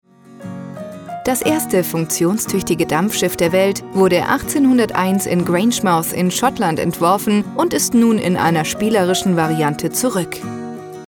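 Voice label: German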